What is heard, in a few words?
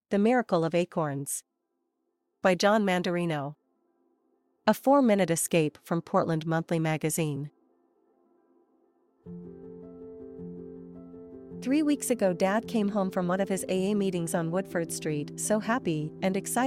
voiceover